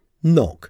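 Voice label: "knock", English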